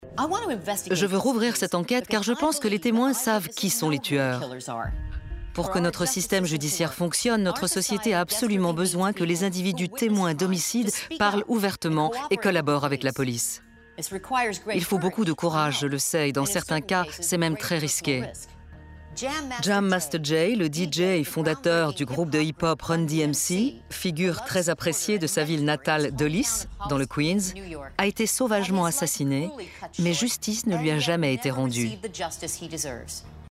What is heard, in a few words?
voice over